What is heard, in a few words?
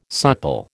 "supple", American